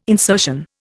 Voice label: "insertion", Indian

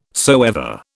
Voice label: "soever", British

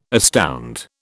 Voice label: "astound", British

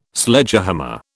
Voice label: "Sledgehammer", British

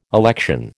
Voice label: "election", American

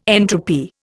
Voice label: "entropy", Indian

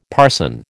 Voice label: "parson", American

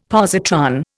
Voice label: "positron", Indian; Dictionary

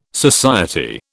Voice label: "society", British

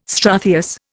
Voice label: "struthious", Indian

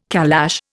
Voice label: "calash", Indian

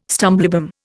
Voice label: "stumblebum", Indian

